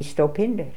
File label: "Stopinder", pronounciations; Fourth Way; Beelzebub